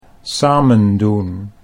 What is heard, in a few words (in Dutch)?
"samen doen" Dutch